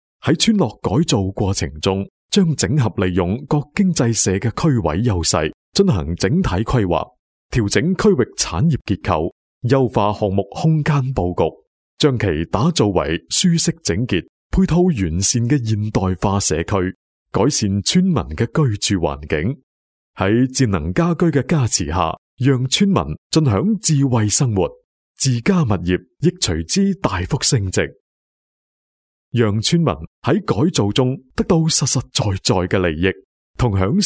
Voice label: 男粤 专题 倡议片; 旧村改造; 粤语

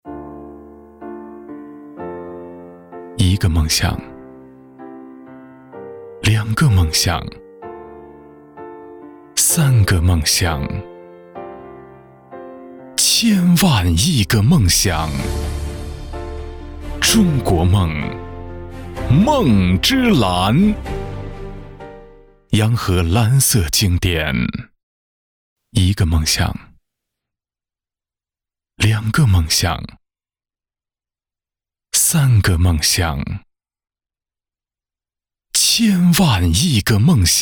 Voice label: 男国 广告 酒类 磁性; 梦之蓝

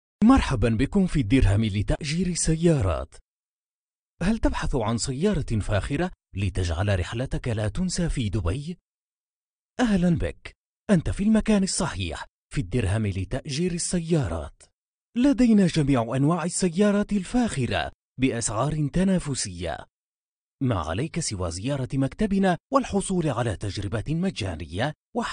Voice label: 阿拉伯语男 外语 小语种 轻快

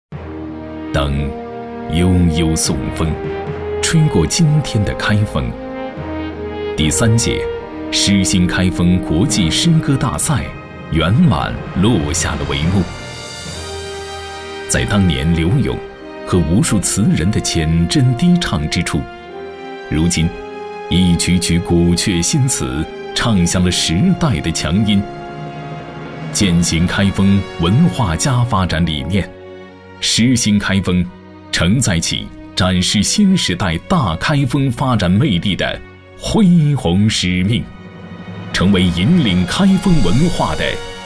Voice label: 男国 宣传片 城市 浑厚; 诗兴开封

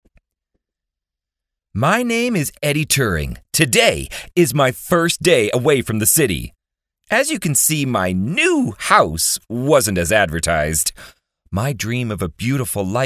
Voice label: 外籍女英 外语 美式英语

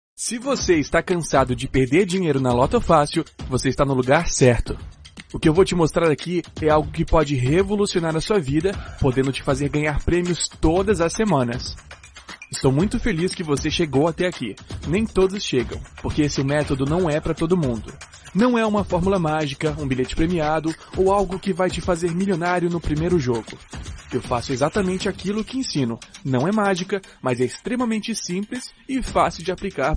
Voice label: 葡萄牙语男 外语 小语种

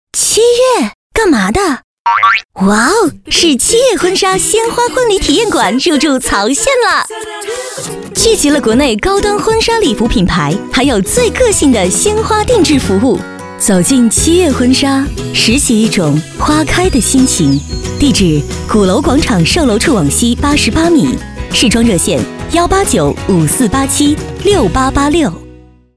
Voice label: 广告 促销 活力; 婚纱摄影

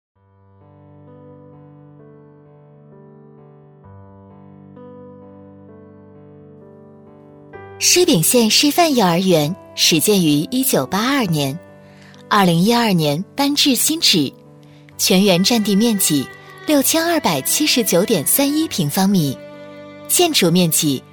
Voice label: 专题 学校 年轻; 施秉县示范幼儿园

